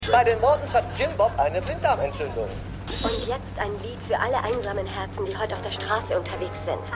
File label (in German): Radiosprecher Radiosprecherin